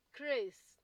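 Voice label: crase, Naija, female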